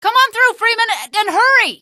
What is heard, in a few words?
female; hl2; streetwar; tunnel; Source engine